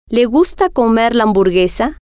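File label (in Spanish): le Gusta Comer Hamburguesa, spanish, female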